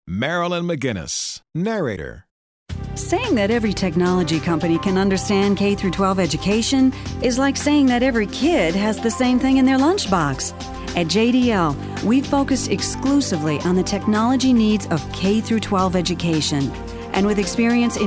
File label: Narration